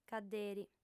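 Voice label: "cadderi", female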